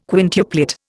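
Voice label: "quintuplet", Indian English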